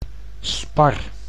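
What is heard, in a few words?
"spar", Dutch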